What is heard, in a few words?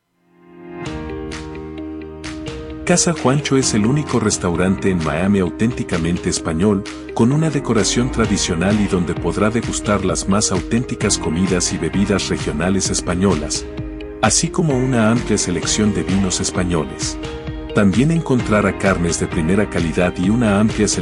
Spanish male; voice talent demos